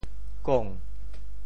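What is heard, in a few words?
"kong2", Teochew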